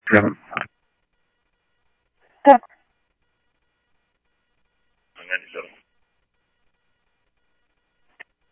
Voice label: Scottsdale Police, scanner audio